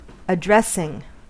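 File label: "addressing", US English; pronunciations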